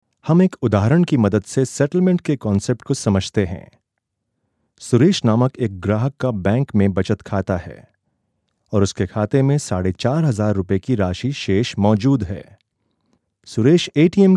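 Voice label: Hindi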